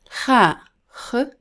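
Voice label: "kha", Arabic letter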